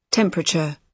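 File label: "temperature", British English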